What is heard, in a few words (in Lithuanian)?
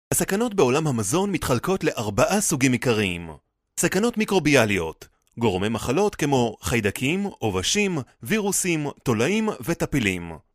(VYR) Hebraju balsas; vyras